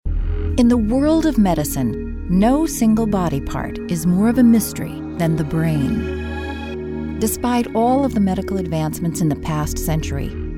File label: Narration